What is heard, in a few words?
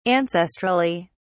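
"ancestrally", English, British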